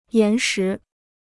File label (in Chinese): "岩石", Chinese